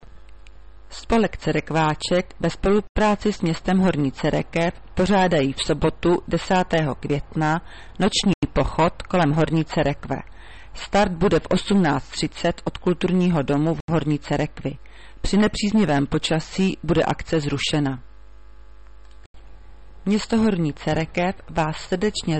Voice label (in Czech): hlaseni, rozhlas